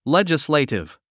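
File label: "legislative", English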